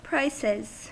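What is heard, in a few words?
"prices", US English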